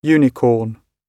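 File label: "unicorn", British English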